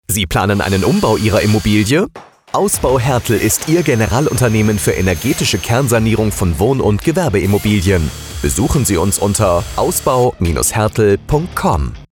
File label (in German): Funkspot; Ausbau Haertel GmbH Co. KG